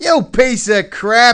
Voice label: cuss, profanity, male; kingpin

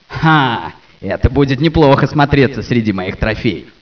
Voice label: shutdown chart, scientist

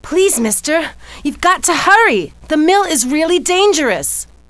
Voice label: female hurry; kingpin loc; bambi

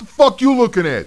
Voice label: cuss, profanity, male; kingpin loc

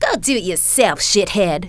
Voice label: "shithead", female; kingpin; yolanda

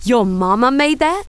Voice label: cuss, female, profanity; kingpin loc

